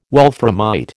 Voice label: "wolframite", American